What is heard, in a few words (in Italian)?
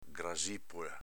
"grasipola", Veneto